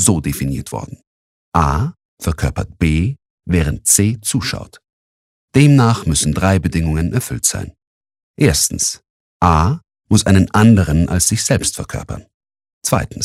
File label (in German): Schauspieler Neutral